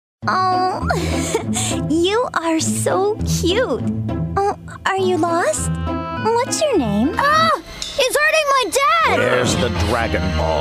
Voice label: Character, animation